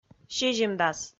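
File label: "сизимдас", Komi, female; kpv audio dict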